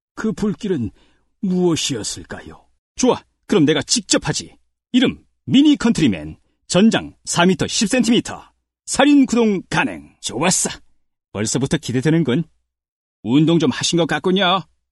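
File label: Korean, acting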